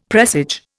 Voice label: "presage", Indian; Dictionary